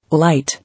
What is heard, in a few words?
"Light", English